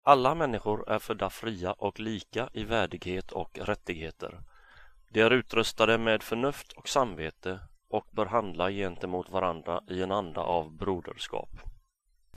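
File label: Swedish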